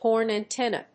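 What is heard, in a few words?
"horn antenna", English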